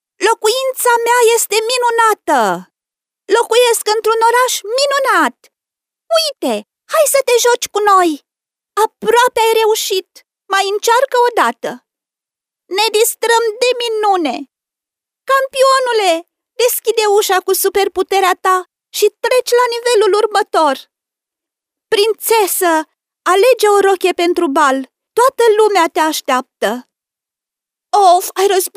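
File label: Romanian female children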